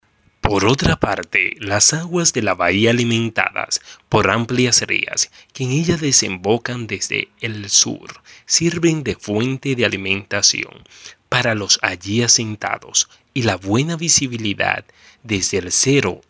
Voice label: locutor dominicano dominican voiceover; locutor de Santo Domingo